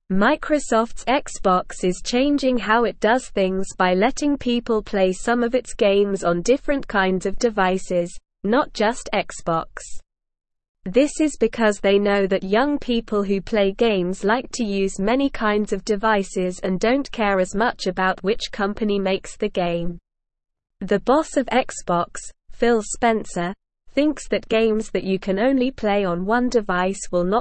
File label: English Lower Intermediate SLOW Reading; English Newsroom; Xbox and PlayStation want more people to play games on different screens; Microsoft’s Xbox is changing how it does things by letting people play some of its games o